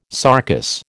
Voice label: "sarcous", American

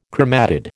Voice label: "chromatid", American

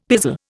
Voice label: "pizzle", Indian; Dictionary

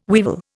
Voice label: "weevil", Indian

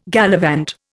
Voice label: "gallivant", Indian

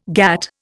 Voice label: "GATT", Indian

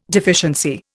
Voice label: "deficiency", Indian

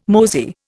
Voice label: "mosey", Indian

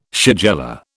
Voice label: "shigella", British

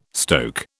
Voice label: "stoke", British

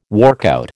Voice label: "workout", American

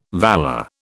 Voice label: "valor", British

